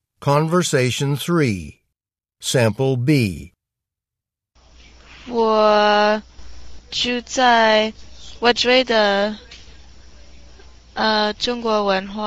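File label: chinese conversation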